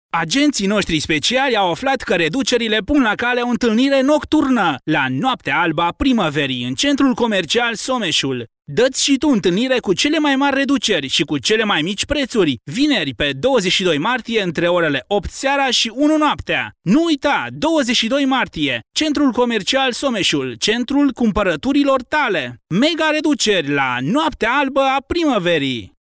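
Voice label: Romanian male voice over, sales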